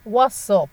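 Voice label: "wosup", Nigerian Pidgin, female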